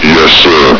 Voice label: "yessir", combine soldier; hl2